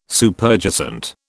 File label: "Superjacent", British